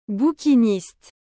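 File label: "Bouquiniste", French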